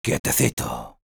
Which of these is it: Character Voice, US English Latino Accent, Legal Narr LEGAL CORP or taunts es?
taunts es